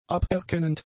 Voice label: "aberkennend", German; adjectives